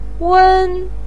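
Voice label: "wen1", Mandarin pinyin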